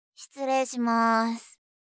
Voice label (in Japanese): 「失礼します」(哀), あいさつ系, ASMR